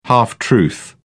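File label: "half truth", British English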